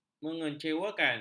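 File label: "mengecewakan", Malay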